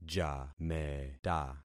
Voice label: "jameta", concat syllables; Affixing experiment